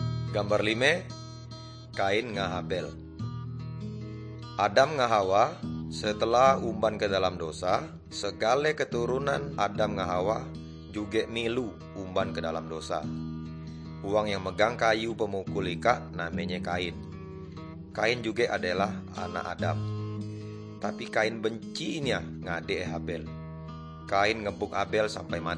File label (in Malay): good news melayu tanjung raja